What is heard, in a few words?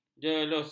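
"jelus", Malay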